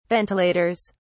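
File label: "ventilators", British English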